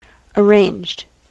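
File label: "arranged", US English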